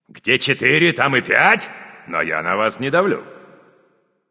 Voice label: kill, russian; caster